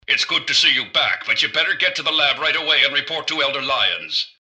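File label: greeting, robot; citadel; mrgutsy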